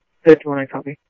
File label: Tempe Police, scanner; SimulcastF-Tempe